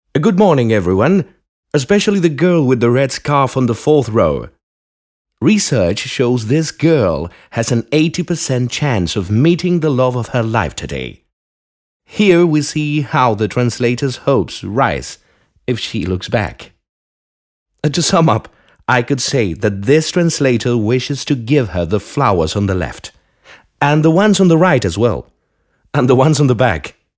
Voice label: Trans, Ingles (Britanico)

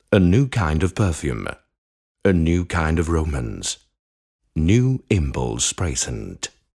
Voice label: Bajada, Ingles (Britanico)

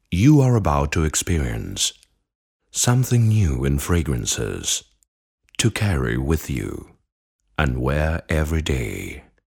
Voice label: Ingles (Britanico)

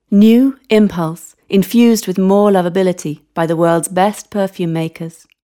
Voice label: Bajada, Ingles (Britanico)

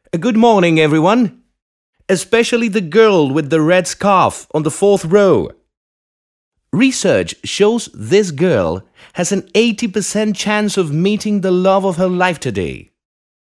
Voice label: Trans, Ingles (Britanico)

